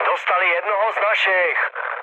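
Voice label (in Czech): Czech aircraft destroyed, mood medium; War Thunder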